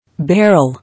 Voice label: "Barrel", English

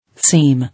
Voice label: "Seem", English

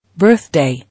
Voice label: "Birthday", English

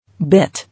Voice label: "Bit", English

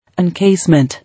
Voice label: "Encasement", English

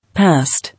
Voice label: "Past", English

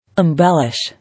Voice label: "Embellish", English

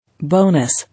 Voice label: "Bonus", English

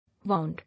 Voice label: "Wound", English

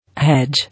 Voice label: "Hedge", English

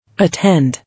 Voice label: "Attend", English

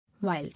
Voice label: "Wild", English

